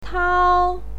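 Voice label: "tao", Mandarin Chinese, first tone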